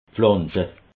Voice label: "pflanze", alsace

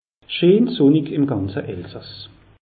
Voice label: "scheen sunnig", alsace; meteo

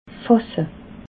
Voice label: "fasse", alsace

